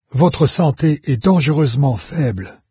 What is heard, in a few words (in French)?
health, fr, narrator; Fate Undiscovered Realms